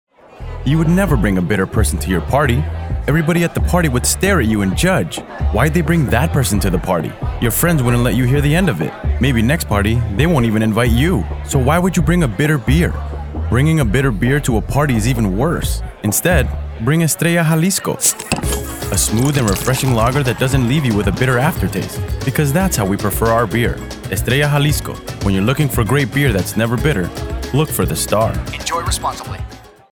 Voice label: MALE; Estrella Jalisco